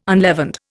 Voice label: "unleavened", Indian